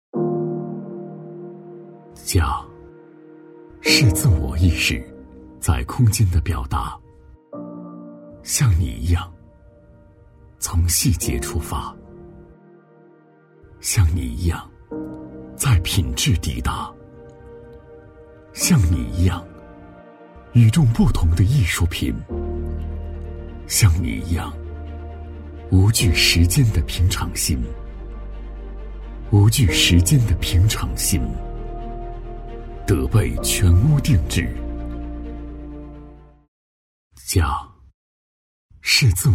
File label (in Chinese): 广告温暖低沉青中年